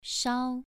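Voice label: "shao" Mandarin Chinese, second tone, pronunciation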